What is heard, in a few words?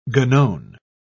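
"guenon", British English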